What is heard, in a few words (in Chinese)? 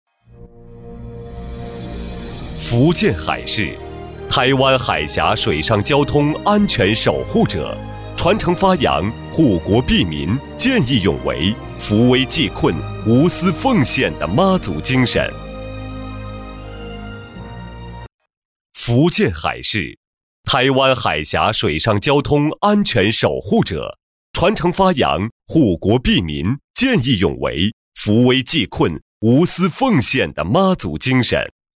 大气专题; 大气专题佛州海事